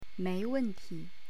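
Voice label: "mei2wen4ti", Chinese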